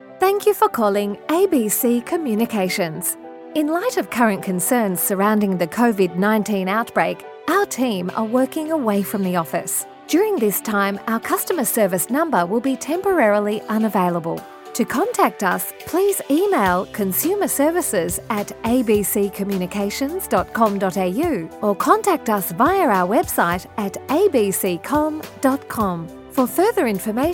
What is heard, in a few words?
AUS Female; Studio Nonagram